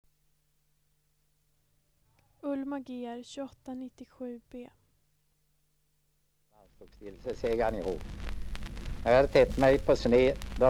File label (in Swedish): Sunnemo, dialekter; Svenska sagor; Folkminnen